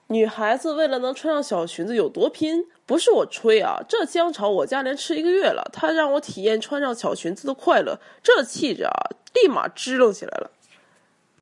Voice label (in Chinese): 东北话试音